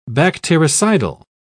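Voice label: "bactericidal", British English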